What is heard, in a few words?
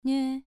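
"nue", tone 2, pronunciation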